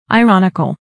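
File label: "ironical", English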